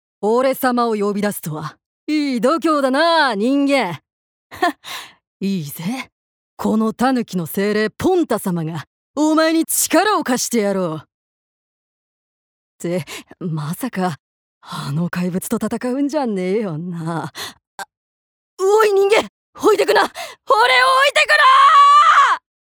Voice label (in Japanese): アニメ